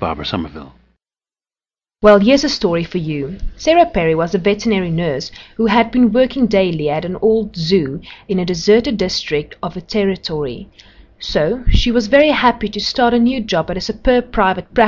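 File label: south africa, dialects of English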